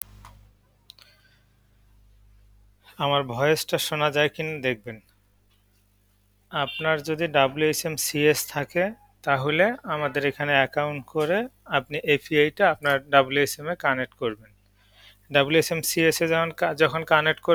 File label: voice message